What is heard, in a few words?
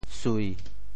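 "sui7", Teochew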